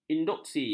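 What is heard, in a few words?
"induksi", Malay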